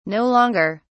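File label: "no longer", British English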